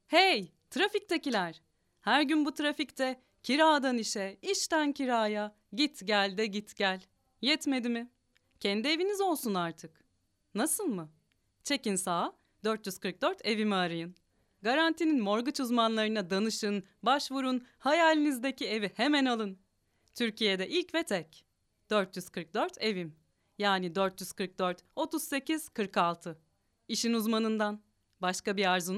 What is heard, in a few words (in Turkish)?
REKLAM MORTGAGE; GARANTI